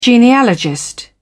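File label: "genealogist", British English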